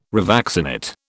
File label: "revaccinate", British